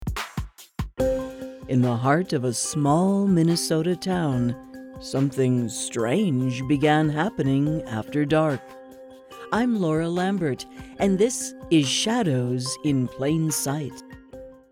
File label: Podcast Open Close